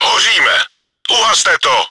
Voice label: fire started, cz; Hoříme, uhaste to!; Czech; Мы горим, потушите!; World of Tanks

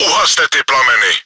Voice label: fire started, cz; Uhaste ty plameny!; Потушите это пламя (огонь)!; Czech; World of Tanks

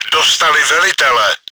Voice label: commander killed, cz; Dostali velitele.; Czech; Они попали в командира.; World of Tanks

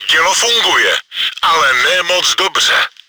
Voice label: gun functional, cz; Dělo funguje, ale ne moc dobře.; Орудие работает, но не очень хорошо.; Czech; World of Tanks

